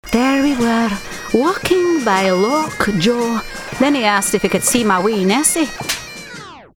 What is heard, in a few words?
SCOTTISH